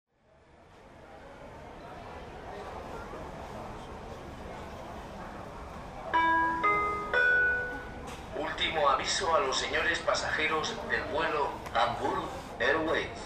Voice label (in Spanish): ultimo avisto Friedrichshafen, Flughafen-Ansagen; Hamburg Airways